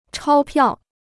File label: "钞票", Chinese